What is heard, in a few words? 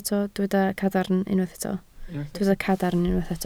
"cadarn", Welsh